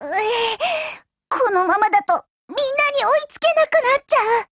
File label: BREAK JP